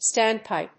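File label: "standpipe", English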